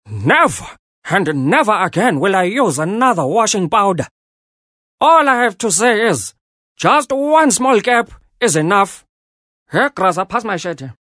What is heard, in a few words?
English Character; Nelson Mandela